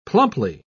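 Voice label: "plumply", British English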